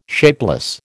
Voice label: "shapeless", American